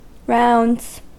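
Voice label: "rounds", American English; pronunciations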